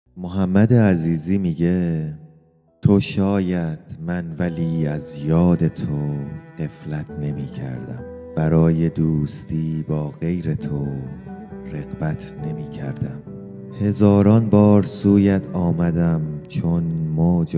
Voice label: narration